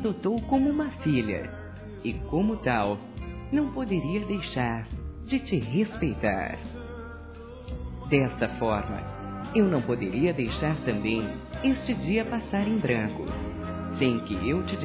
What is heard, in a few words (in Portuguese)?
SOGRO F, Telemensagens Dia dos Pais; Telemensagens 2017